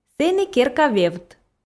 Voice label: сэні керка вевт, f

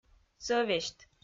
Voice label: "сӧвесьт", Komi

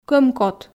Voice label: "кӧмкот" Komi

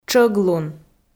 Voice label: "тшӧглун", Komi

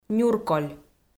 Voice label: "нюрколь", Komi

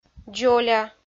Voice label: "дзоля", Komi

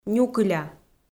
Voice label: "нюкыля", Komi

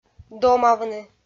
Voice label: "домавны", Komi

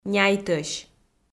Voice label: "няйтӧсь", Komi

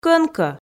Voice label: "кӧнкӧ" Komi

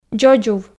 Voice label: "дзодзув", Komi

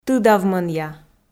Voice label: "тыдавмӧнъя", Komi

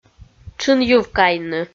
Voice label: "тшын йыв кайны", Komi

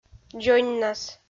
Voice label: "дзоньнас", Komi

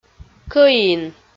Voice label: "кӧин" Komi

